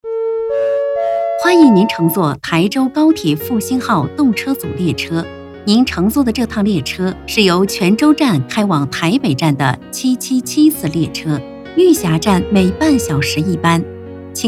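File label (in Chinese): 专题温馨广播高铁复兴号; 泉台高铁复兴号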